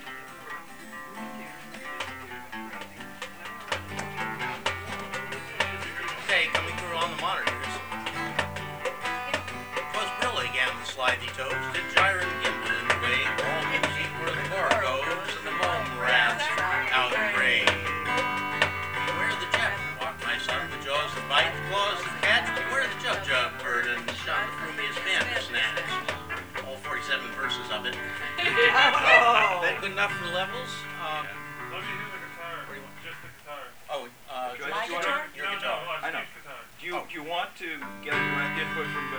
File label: talking soundcheck; Worldcon 2006